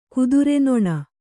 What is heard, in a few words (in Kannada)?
"ಕುದುರೆನೊಣ", Kannada; kudure noṇa; noun; a horse-fly.